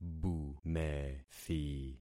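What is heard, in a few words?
"bumethi", concatenated syllables; Affixing experiment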